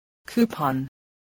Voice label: "coupon", voice spelling